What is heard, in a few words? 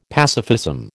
"pacifism", American